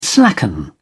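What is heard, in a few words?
"slacken", British English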